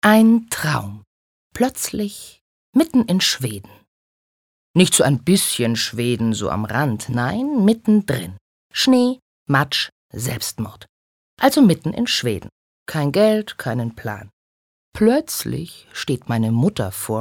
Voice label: Schauspiel Lebendig

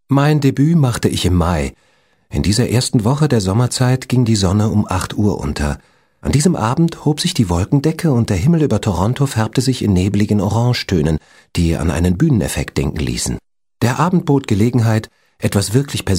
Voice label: Schauspiel Neutral

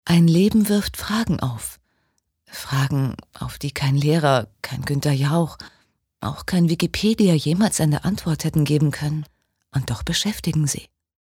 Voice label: Schauspiel, Sanft

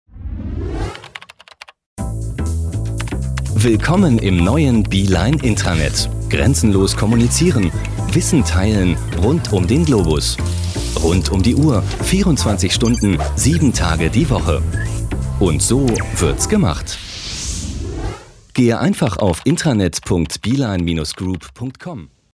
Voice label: Neutral

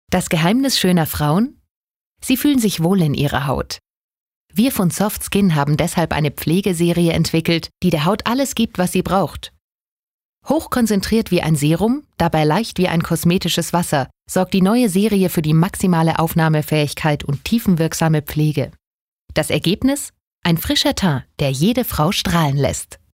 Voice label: Soft Skin Freundlich

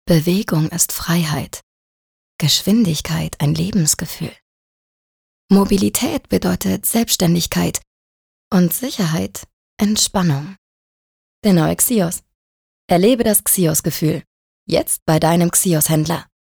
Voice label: Sanft; Xios

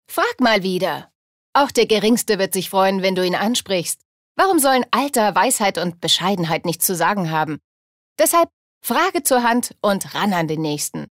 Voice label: Werbung Frech

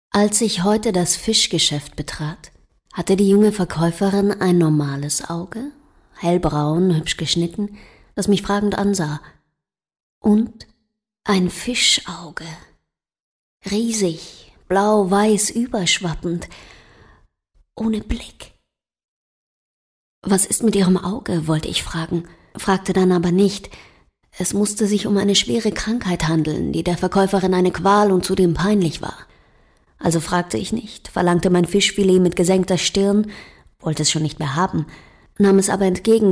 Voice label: Schauspiel Neutral

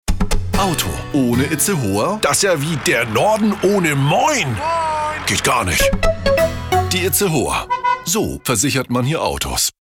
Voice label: Fremdtake Dynamisch

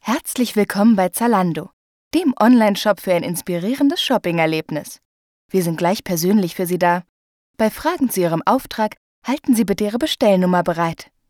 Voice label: Kommentar Freundlich

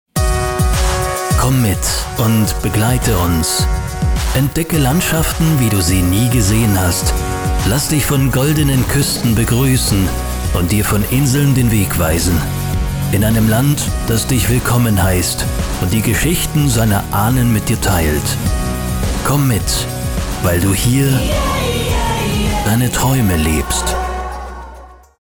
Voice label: Sanft

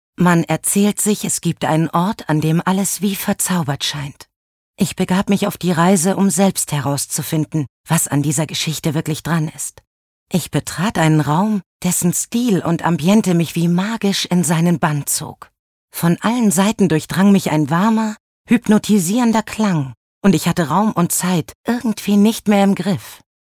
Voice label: Schauspiel Sanft

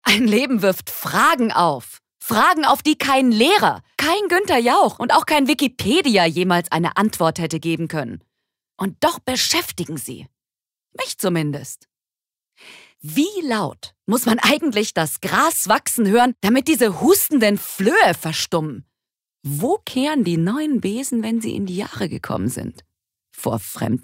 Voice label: Schauspiel Dynamisch

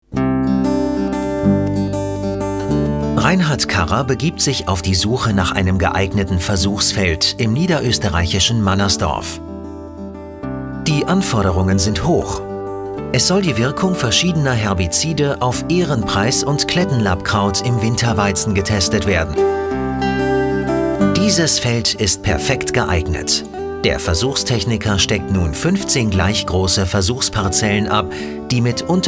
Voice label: Kommentar Freundlich

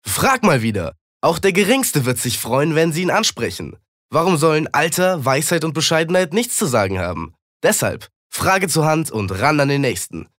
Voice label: Werbung Dynamisch

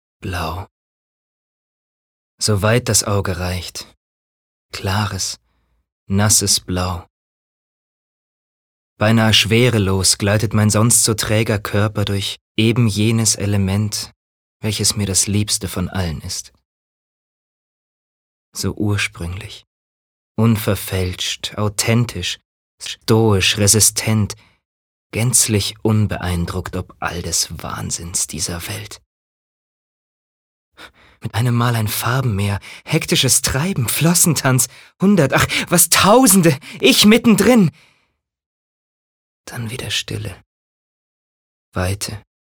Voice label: Melancholisch

